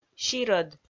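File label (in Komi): "сирӧд", Komi, female; kpv audio dict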